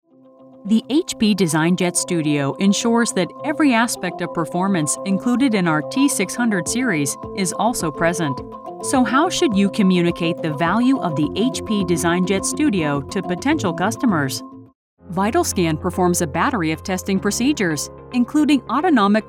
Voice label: corporate eLearning